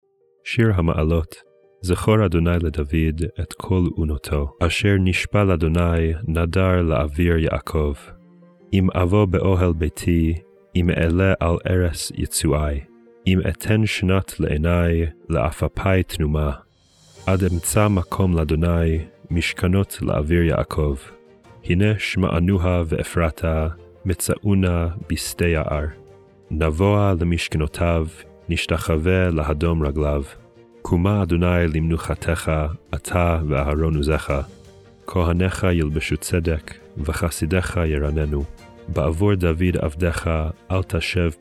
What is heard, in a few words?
poetry and prayer psalm hebrew